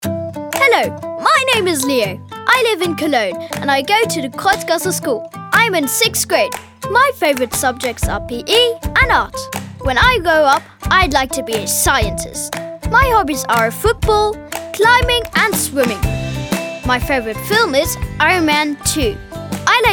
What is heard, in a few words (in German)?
Selbstvorstellung EN